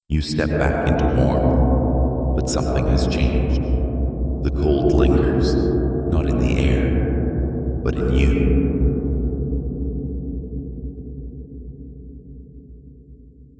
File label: narration ice; kz realmshift